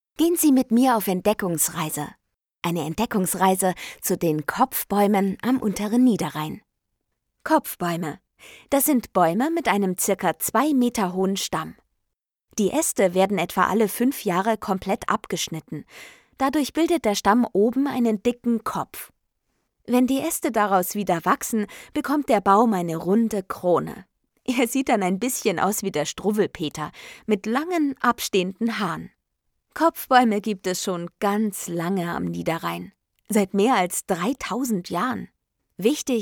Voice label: Audioguide; kopfbaeume

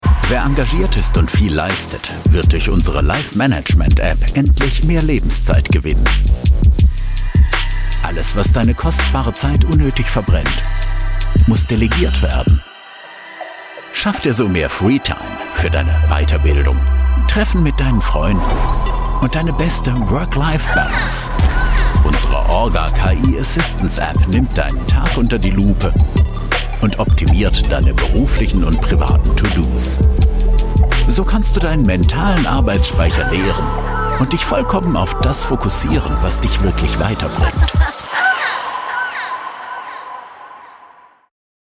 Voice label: Werbung Freundlich Kollegial Deutsch; LOFT VOICES

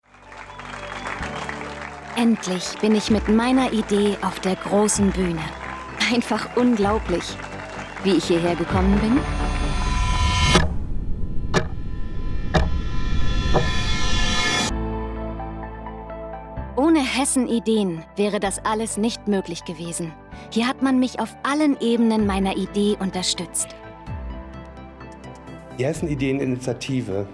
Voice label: Imagefilm; Hessen Ideen

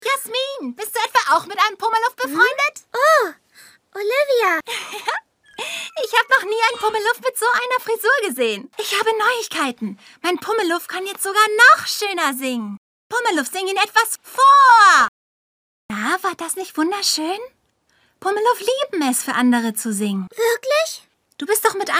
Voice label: Synchron Animation kindlich

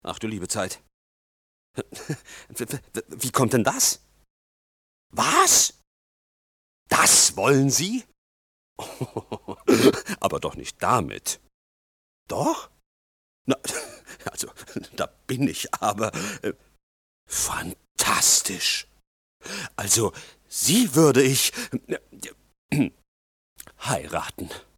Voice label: synchron

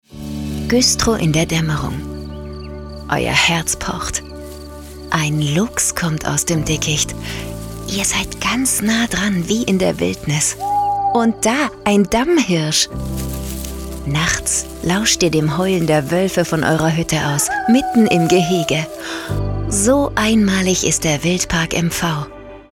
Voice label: Werbung spannend aufregend; Wildpark MV

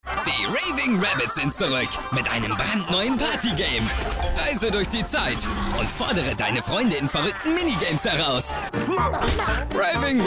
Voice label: OFF plakativ

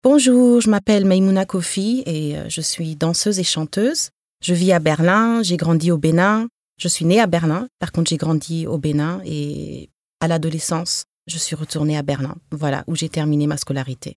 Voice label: Vorstellung FR

